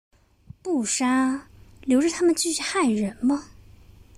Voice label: 短句子

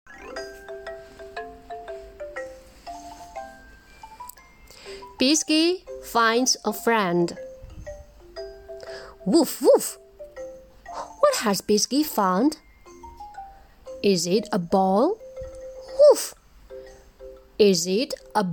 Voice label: 英文绘本配音

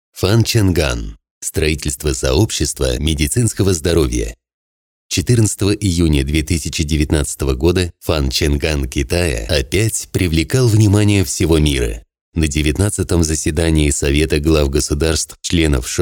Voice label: 俄语低沉磁性

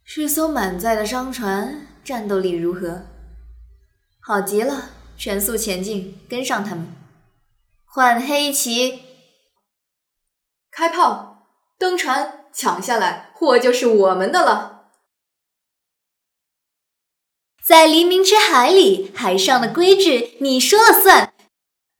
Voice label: 女船长旁白; 星星

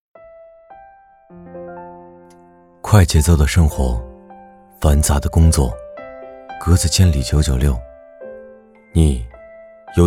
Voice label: 品质走心

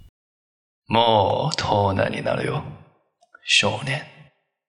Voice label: 少年

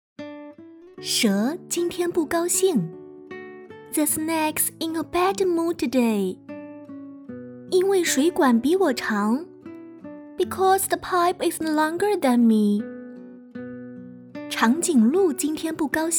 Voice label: 双语幼儿绘本亲和力生动

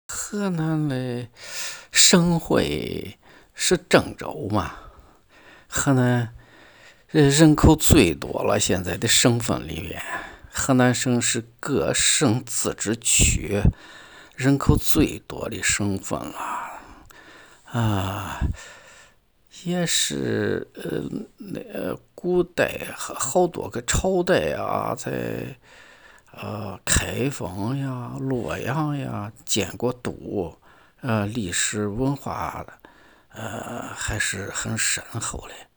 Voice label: 河南话

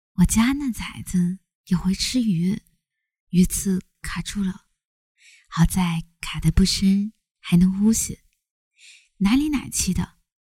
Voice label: 有声读物试音

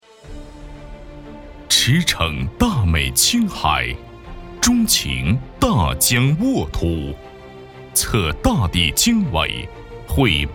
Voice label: 宣传片

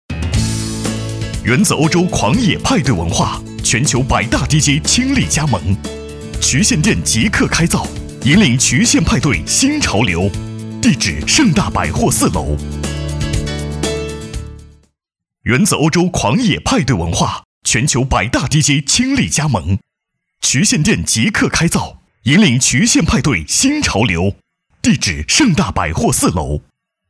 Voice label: 广告MG激情活力

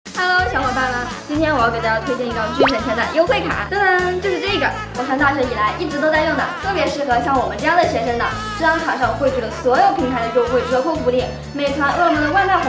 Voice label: 欢快活泼少女加速短视频广告配音

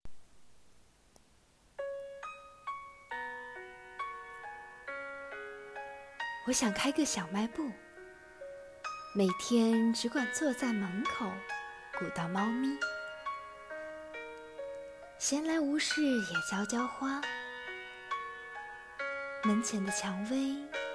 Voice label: 广告 配音

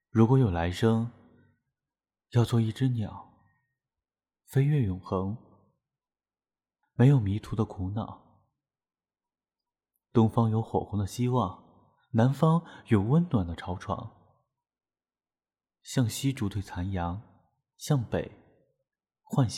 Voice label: 情感类

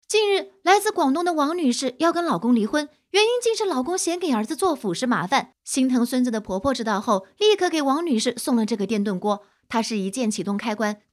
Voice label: 悬疑风信息流

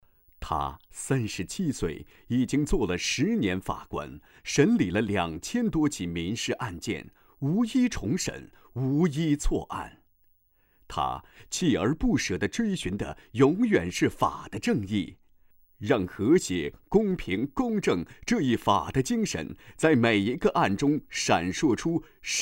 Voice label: 人物讲述

